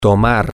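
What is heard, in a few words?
to drink, Spanish, male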